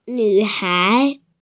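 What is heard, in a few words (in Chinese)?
"nv3hai2", Mandarin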